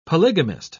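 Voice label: "polygamist", British English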